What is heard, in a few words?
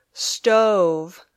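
"stove", English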